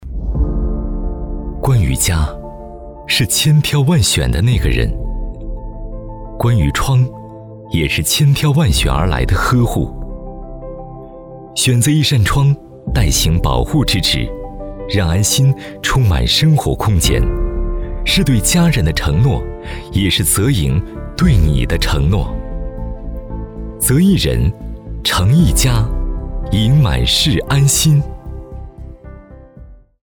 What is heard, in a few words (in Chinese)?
专题 门窗 走心 有感情; 择盈门窗